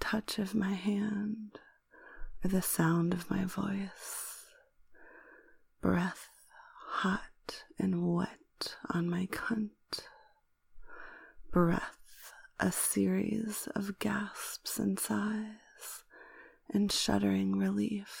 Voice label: Your mouth, Erotic poetry, Oral fixation, Sensual, Romantic, F4M